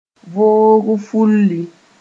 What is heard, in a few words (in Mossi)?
"voog-fulli", moore